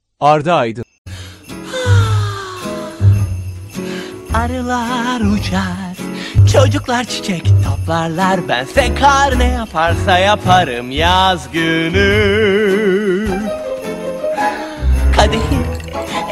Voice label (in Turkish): animasyon